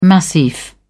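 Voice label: "massif", British English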